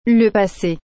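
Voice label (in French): "le passé", French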